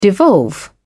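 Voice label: "devolve", British English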